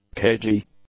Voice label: "cagey", English; adjectives

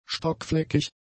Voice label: "stockfleckig", German; adjectives

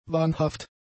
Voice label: "wahnhaft", German; adjectives

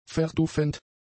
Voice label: "verdufend", German; adjectives

